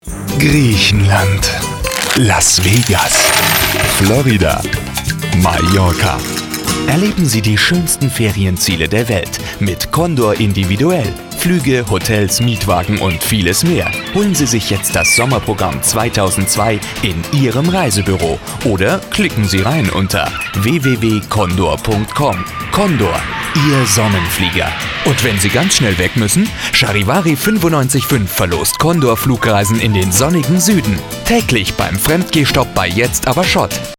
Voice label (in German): de werbung, deutsch maenner